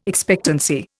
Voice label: "expectancy", Indian